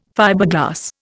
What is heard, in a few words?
"Fiberglass", Indian